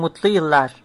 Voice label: new year, turkish